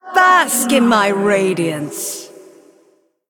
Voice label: Female Spirit Play